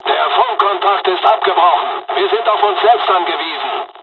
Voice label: dialog, dialogs german; War Thunder; midway